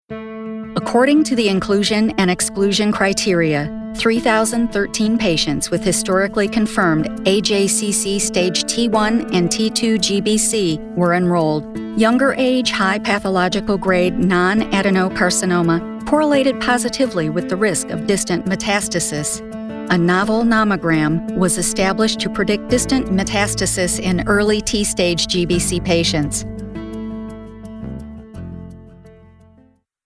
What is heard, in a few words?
Technical Medical E-Learning; E-Learning Demo